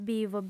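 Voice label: "bivo", female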